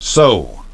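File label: "sew", English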